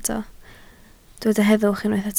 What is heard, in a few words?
"heddwch", Welsh